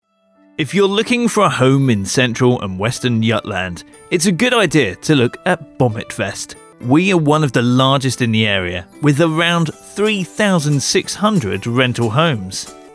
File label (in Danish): Britisk